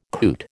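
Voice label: "coot", American English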